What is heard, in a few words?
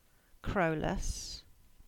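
"Crowlas" English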